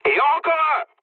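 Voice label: French player killed enemy mood med; War Thunder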